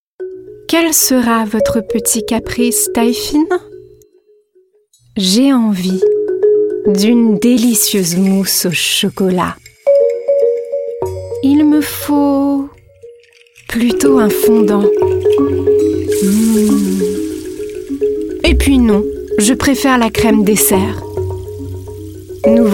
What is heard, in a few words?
French